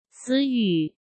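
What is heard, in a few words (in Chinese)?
"词语", Mandarin; chinese-flashcards; hsk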